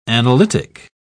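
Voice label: "analytic", British English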